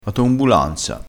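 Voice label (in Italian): "atombulanza", vocabolario dialettale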